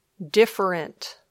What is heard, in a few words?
"different", English